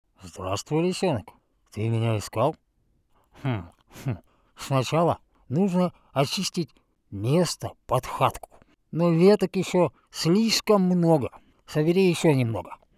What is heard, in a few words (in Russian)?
bobyor multyashnyj